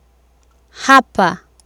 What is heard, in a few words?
"hapa", Swahili